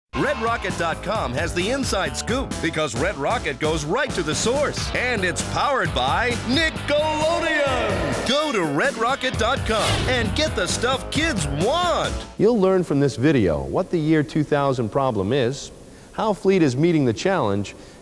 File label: Announce Straight